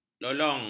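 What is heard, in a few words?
"lolong", Malay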